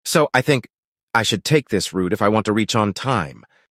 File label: Pauses; "So I think - I should take this route if I want to reach on time"; Text To Voice; DeepVoice AI